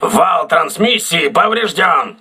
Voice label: voice message transmission damaged, crew ships russian